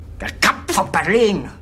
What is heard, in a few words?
cheer